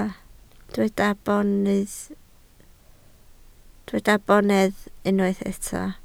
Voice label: "bonedd", Welsh

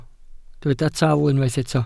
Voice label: "tal", welsh

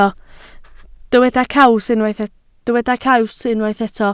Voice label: "caws", Welsh

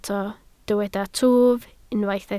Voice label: "twf", Welsh

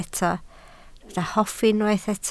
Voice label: "hoffi", Welsh

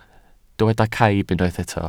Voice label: "caib", Welsh

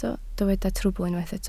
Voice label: "trwbl", Welsh

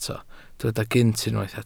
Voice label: "gynt", Welsh

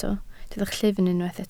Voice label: "llyfn", Welsh

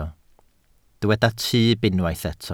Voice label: "tyb", Welsh

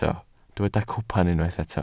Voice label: "cwpan", Welsh

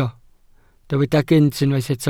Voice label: "gynt", Welsh

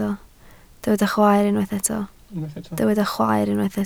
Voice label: "chwaer", Welsh

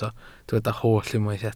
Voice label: "holl", Welsh

